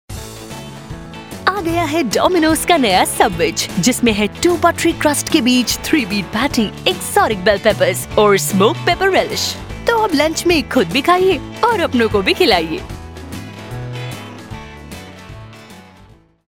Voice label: Advertisment Hindi

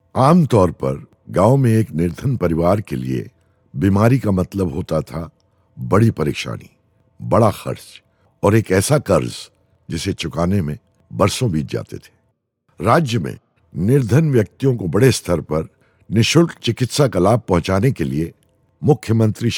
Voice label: Narration Hin

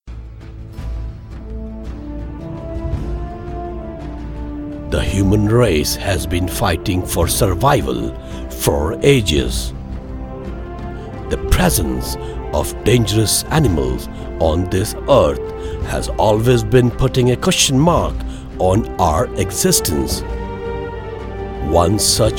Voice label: eng; humanrace

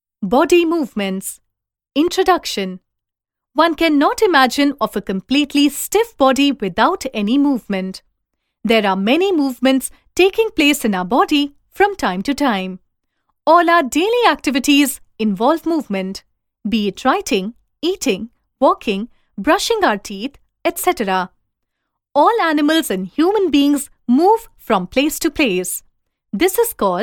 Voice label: E LEARNING ENGLISH

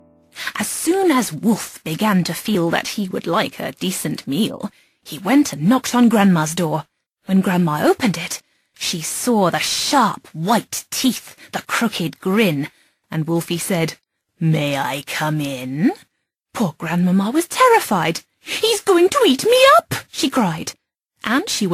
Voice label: story

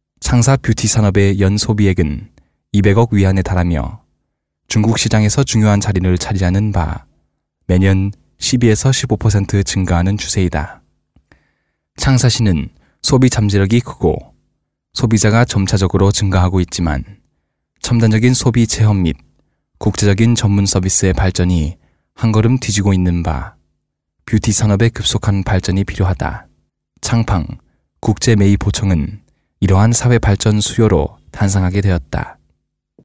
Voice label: MALE KOREAN

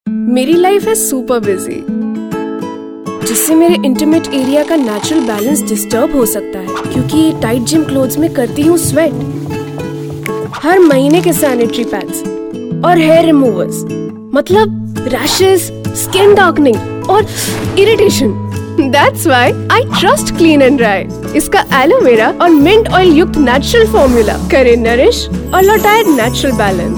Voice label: Hindi TVC; Clean And Dry

